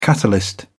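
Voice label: "catalyst", British English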